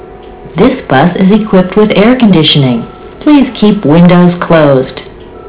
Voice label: air, Long Beach Transit Passengers Relations announcements